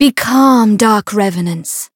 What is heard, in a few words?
Voice encount fear; toova